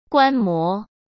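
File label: "观摩", Mandarin Chinese; hsk; chinese-flashcards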